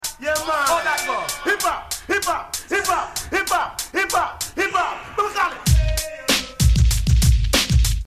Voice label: Vocal (Hyper)

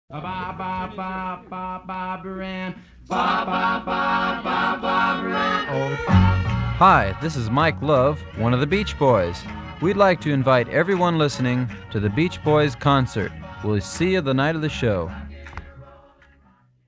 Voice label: Radio Promo Spot

